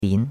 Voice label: "bin2", chinois; Cours-de-Chinois

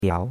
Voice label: "biao2", chinois; Cours-de-Chinois

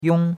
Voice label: "yong1", chinois; Cours-de-Chinois

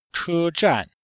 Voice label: "che1zhan4", chinois; Cours de Chinois

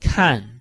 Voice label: "kan4", chinois

